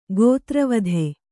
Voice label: "ಗೋತ್ರವಧೆ", Kannada